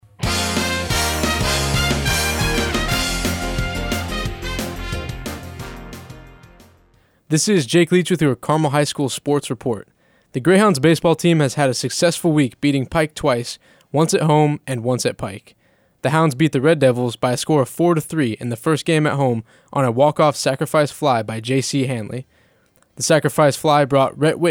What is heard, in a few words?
Sportscast